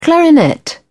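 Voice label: "clarinet", British English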